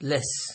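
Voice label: "less", Nigerian Pidgin, male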